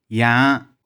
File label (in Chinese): "yang5", 玉環